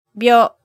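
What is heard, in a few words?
"byo", Japanese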